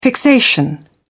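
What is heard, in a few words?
"fixation", British English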